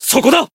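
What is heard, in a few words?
Voice Japanese; finish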